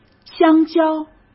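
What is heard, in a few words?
"香蕉", Mandarin Chinese; hsk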